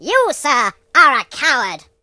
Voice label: "Coward", British; hedgewars